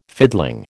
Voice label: "fiddling", American